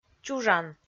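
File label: "чужан", Komi-Zyrian, female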